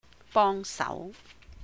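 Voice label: "bòng sáu 幫手", Cantonese; help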